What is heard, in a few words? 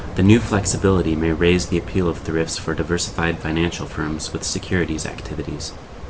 Reverb near